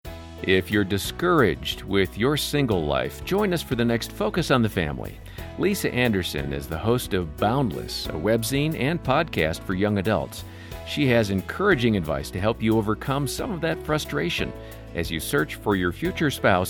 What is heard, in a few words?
generic promo